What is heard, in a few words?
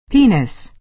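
"penis", American English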